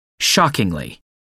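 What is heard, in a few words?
"shockingly", British English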